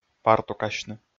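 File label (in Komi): "партукасьны", Komi, male; audio dict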